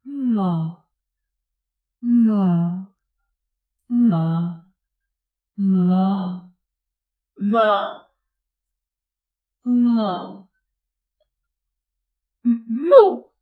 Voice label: a female voice saying wow; amazed